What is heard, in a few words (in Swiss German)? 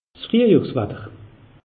"friehjohr watter", alsace; meteo